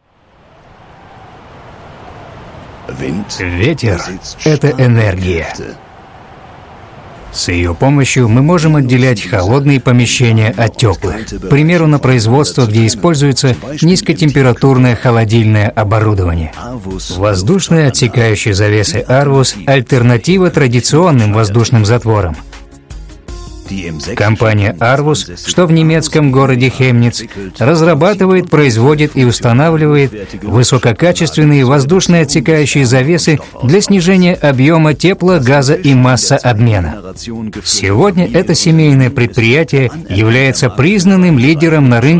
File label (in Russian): Реклама закадровое озвучивание